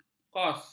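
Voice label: "kos", Malay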